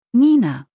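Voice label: "Nina", German; maedchennamen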